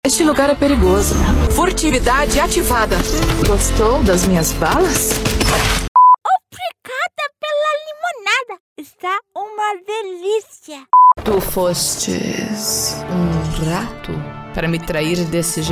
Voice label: portuguese brazilian video games